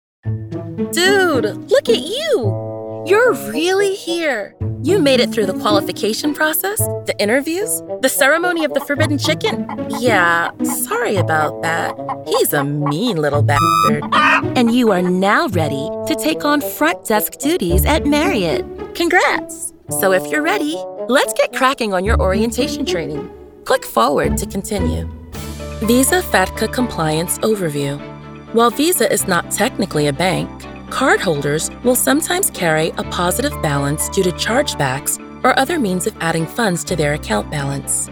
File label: E LEARN MED, Ethnic Female